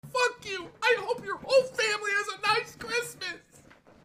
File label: angriest canadian